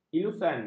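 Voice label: "elusan", Malay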